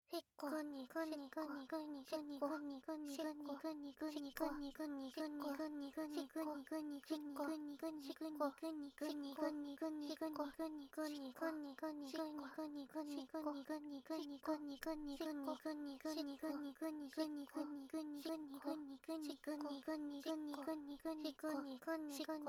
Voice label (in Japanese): ぐにぐにしこしこボイス, 汎用エロボイス; おまけ音声（汎用指示ボイス・汎用エロボイス）